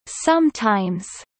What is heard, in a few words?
Kelly low; spring festival